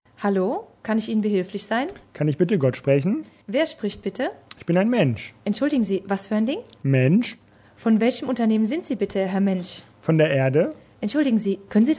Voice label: Conversation German